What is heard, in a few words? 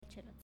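"Cielo", Italian, female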